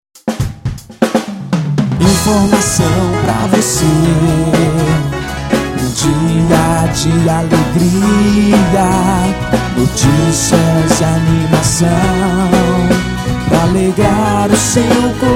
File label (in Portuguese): vinhetas bom dia fm; urutai fm